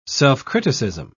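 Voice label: "self-criticism", British English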